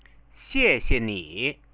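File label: "xiexieni" Chinese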